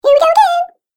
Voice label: Cartoon Game Voice Here We Go Again

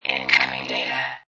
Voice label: Robot Voice "Incoming Data"; Robot Type 1 voice

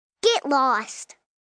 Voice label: "Get Lost", Attitude, 1 child, 5 yrs

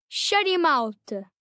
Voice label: Shut Your Mouth, 1 boy, 10 yrs; Talking Sound Effects; Sound Effects:Voice; VoiceBox

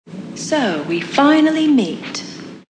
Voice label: So We Meet, Female; Talking

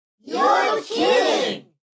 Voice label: Kidding Group, Exclamation; VoiceBox

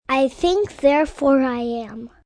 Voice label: I Think Child, Sayings, child; Talking

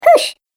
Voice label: Cartoon Game Voice "Push"; Cartoon Voice Sound Effects

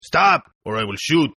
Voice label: Toughguy Shoot English, Tough Hispanic Male; Yelling Sound Effects

